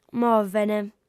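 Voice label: "movent", female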